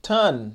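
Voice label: "Tann", Haitian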